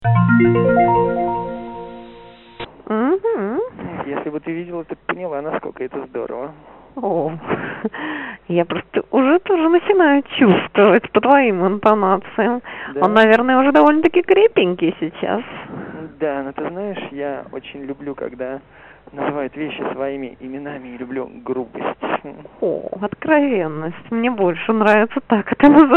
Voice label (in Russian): Секс по телефону Запись разговора